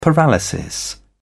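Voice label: "paralysis", British English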